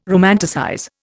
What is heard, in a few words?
"romanticize", Indian